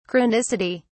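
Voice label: "chronicity", British English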